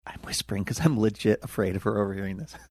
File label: whispering i'm whispering cuz i'm legit afraid